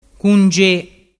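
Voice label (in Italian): "cunge", Vernazzese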